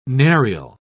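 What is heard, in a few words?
"narial", British English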